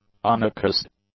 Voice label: "unaccused", English; adjectives